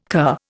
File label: "cur", Indian